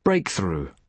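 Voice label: "breakthrough", British English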